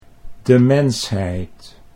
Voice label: "demensheid", Dutch

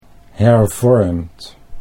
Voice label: "hervormd", Dutch

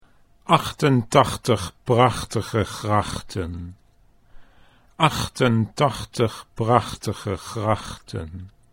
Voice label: "prachtige grachten", Dutch

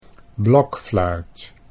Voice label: "blokfluit", Dutch

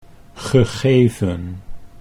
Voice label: "gegeven", Dutch

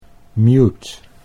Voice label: "mute", Dutch English

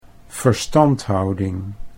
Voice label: "verstandhouding", Dutch

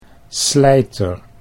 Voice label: "slijter", Dutch

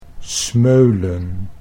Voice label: "smeulen", Dutch

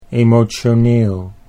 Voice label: "emotioneel", Dutch

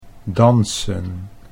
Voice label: "dansen", Dutch

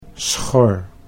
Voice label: "schor", Dutch

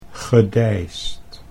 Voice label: "gedeisd", Dutch

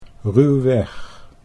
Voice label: "ruwweg", Dutch

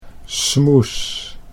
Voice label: "smoes", Dutch

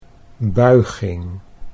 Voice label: "buiging", Dutch

